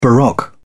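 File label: "baroque", British English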